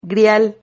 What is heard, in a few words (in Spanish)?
"grial", Silabas